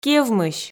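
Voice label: "кевмысь", Komi, female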